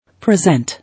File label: "Present", English